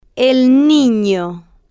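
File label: el Nino, Spanish, female, slow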